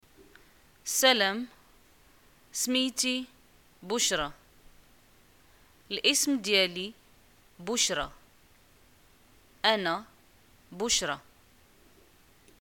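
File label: hi my name is, Moroccan arabic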